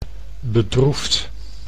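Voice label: "bedroefd", Dutch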